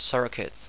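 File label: "circuit", English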